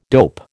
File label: "dope", American